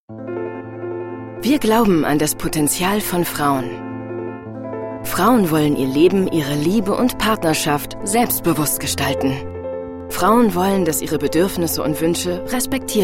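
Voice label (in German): commentary, Freundlich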